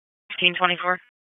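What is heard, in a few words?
Police Northeast District Dispatch; Raleigh; p25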